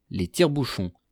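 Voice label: "les tire bouchons", French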